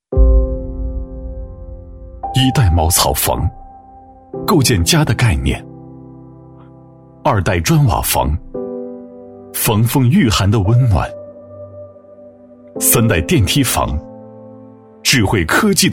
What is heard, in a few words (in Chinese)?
男 房地产宣传 磁性质感; 厚重有力; 大气浑厚; 仟坤天朗3期配音稿